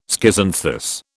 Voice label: "schizanthus", British

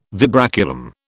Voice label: "vibraculum", British

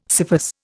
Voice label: "scyphus", Indian

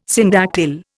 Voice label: "syndactyl", Indian

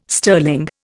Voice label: "sterling", Indian

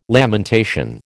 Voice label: "lamentation", American

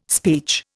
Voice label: "speech", Indian

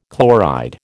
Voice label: "chloride", American

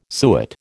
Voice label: "suet", American

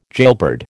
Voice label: "jailbird", American

